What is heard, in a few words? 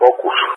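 "Focus", English, GREECE; CallSign; Allied Flight; DCS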